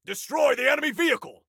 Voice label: Attack Vehicle, Soldier Male

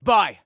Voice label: Bye, Soldier Male; Soldier Male 01

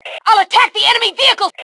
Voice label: Self Attack Vehicle, Female

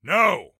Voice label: No, Soldier Male

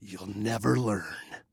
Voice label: Taunt Learn, Male

